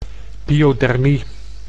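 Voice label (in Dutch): "pyodermie", Dutch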